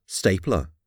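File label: "stapler", English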